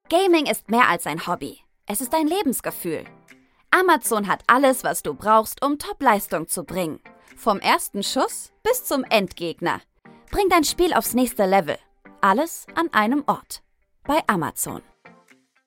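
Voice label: german radio imaging, female; german voice actors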